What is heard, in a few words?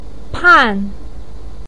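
"pan4", Mandarin pinyin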